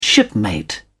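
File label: "shipmate", British English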